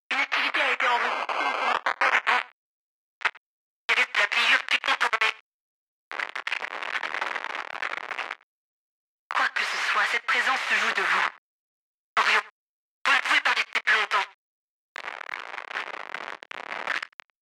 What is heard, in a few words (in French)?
Voix Radio Krush Automation; Babel